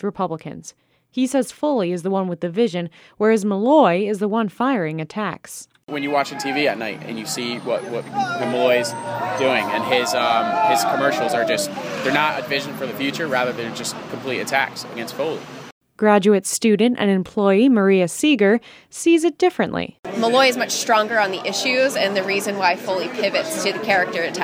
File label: Debate